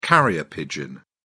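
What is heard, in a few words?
"carrier pigeon", British English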